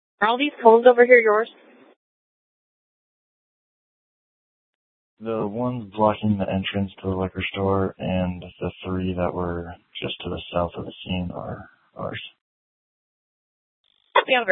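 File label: police dispatch, scanner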